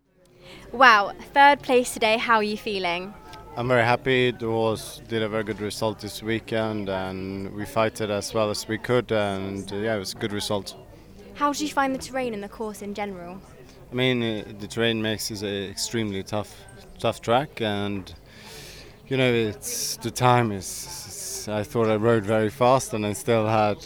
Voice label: ENGLISH; FOBE 2018 Ludwig Svennerstal British Open day3